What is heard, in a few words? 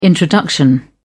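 "introduction", English, uk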